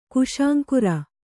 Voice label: "ಕುಶಾಂಕುರ", Kannada